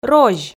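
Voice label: "розь", Komi, female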